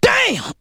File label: "damn", expletives; god damn